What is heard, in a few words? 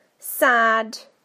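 "sad", British English; PictureDictionary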